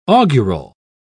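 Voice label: "augural", British English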